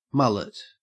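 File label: "mullet", Australian English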